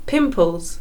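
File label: "boutons", English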